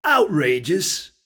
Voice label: Special Outrageous; wild water